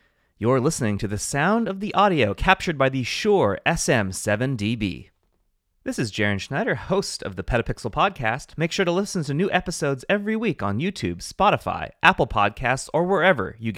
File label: shure sm7db